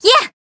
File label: attack, jp